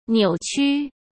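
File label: "扭曲", Mandarin Chinese; chinese-flashcards; hsk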